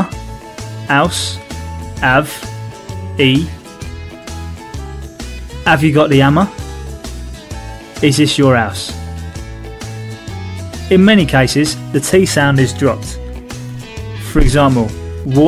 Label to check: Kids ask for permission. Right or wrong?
Wrong